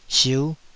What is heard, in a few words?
"siu5", taiwanese Syllables